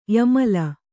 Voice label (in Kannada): "ಯಮಲಿ", Kannada